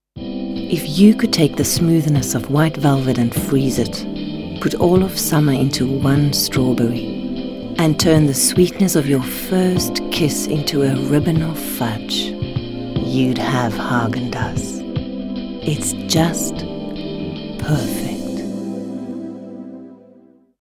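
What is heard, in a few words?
english south african female commercial; voice demos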